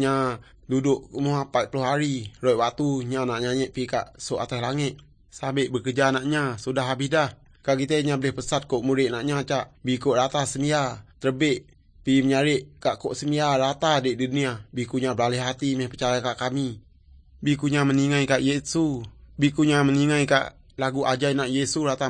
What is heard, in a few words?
good news, urak lawoi; malay